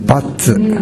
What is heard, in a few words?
"battu", minamiyamagata